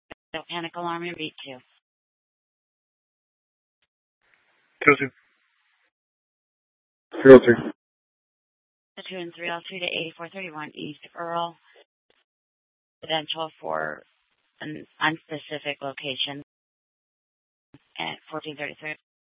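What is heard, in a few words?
Scottsdale Police Department South Dispatch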